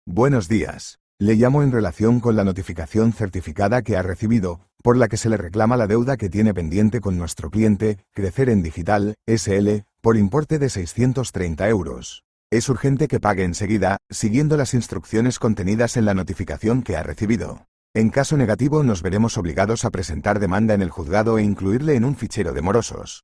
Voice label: audio call